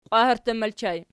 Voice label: "qwaherttum", Archi